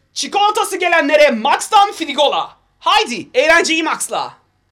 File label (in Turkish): reklam